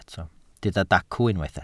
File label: "dacw", Welsh